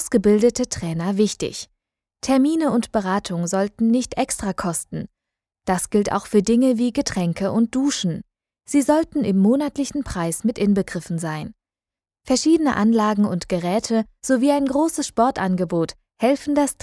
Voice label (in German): Erklärvideo Fitnesscenter